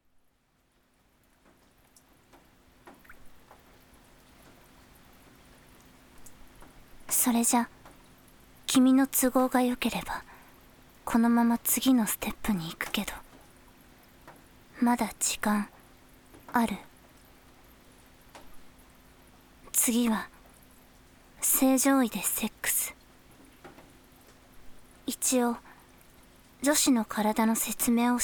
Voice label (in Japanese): 雨降りバージョン, ASMR; 田舎の無感情保健委員長と性交の特訓。